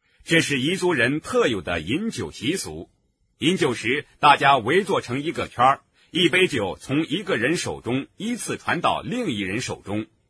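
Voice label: mandarin chinese voiceover male locutor chino; Chinese mandarin voiceover artist.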